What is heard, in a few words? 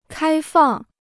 "开放", Chinese